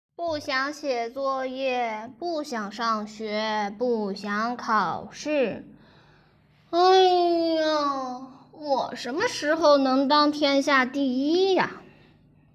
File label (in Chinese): 调皮女孩